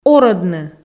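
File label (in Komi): "орӧдны", Komi, female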